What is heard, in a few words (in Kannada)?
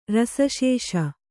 "ರಸಶೇಷ", Kannada